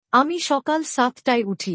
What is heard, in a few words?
I wake up at 7 AM. Bangla; Expressing Time and Day